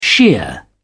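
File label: "shear", British English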